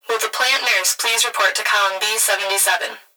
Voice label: Emergency Human Female Voice Plant Security Dispatch Will The Plant Nurse Please Report To